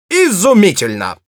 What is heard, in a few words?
positive vocalization, ru